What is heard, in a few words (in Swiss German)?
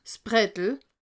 "brattel", alsace